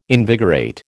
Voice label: "invigorate", American